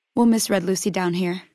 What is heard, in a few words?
female adult greeting; Fnv